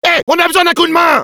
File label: help me, fr; Demoman